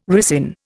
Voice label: "ricin", Indian

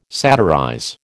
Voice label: "satirize", American

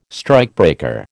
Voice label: "strikebreaker", American

